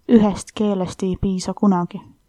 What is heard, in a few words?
one language, estonian